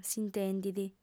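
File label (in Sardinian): "s'intendit", female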